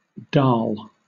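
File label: "dal", British English; pronunciations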